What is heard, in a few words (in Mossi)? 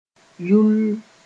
"yulll", moore